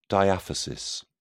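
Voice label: "diaphysis", British English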